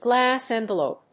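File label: "glass envelope", English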